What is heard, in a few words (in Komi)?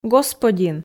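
"господин", Komi, female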